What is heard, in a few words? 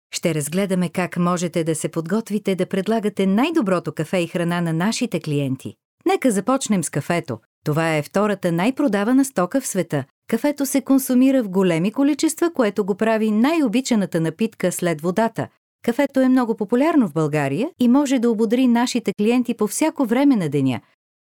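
Bulgarian, female, corporate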